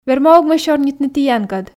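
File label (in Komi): верма ог ме сёрнитны тіянкӧд, Komi, female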